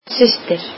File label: icelandic sister